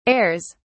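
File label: "airs", British English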